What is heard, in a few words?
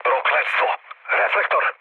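Serbian searchlite mood low, speech; War Thunder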